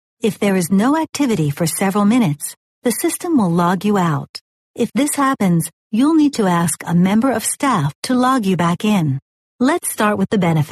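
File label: American English, female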